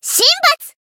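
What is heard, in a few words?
Voice finish, Japanese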